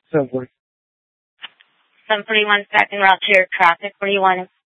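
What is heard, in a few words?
Sheriff, Parks, Sheriff radio scanner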